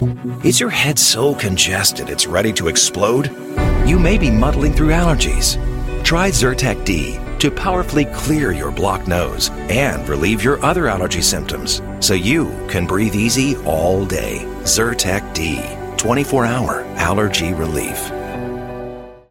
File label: commercial; zyrtec